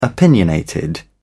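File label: "opinionated", British English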